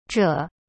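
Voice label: "zhe", Mandarin Chinese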